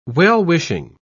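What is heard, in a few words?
"well-wishing", British English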